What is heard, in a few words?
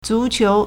"zu2qiu2", Chinese